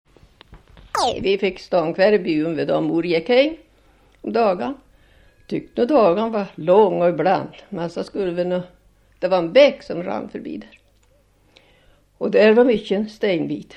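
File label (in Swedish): Revsund Jämtland, Svenska sagor, dialekter; Folkminnen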